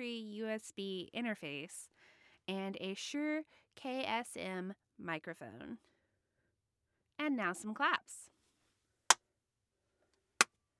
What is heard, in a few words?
Studio Sample, voice